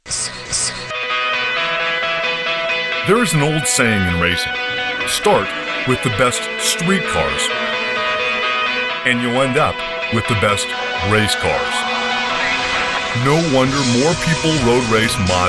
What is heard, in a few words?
Racing Commercial